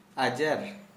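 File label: Santali male sick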